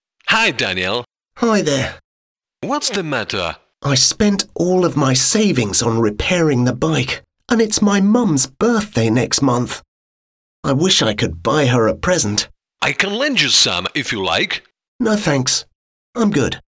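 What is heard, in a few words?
english dialog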